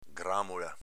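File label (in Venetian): "gramola", Veneto dialect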